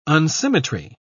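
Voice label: "unsymmetry", British English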